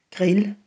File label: "grill", Danish; Danish food